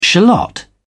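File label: "shallot", British English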